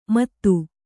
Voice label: "ಮದು", Kannada